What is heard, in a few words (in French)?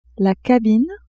"cabine", French; vetements